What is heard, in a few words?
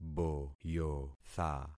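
"boyotha", concatenated syllables; Affixing experiment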